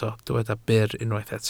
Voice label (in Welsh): "byr", Welsh